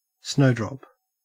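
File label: "snowdrop", Australian English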